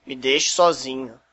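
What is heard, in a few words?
leave me alone, Brazilian Portuguese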